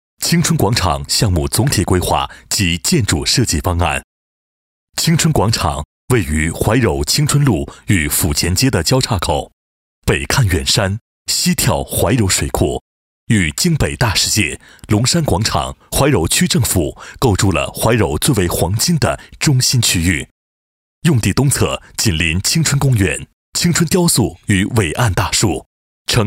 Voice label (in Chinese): 男60 工程; 青春广场总体方案; 交广主播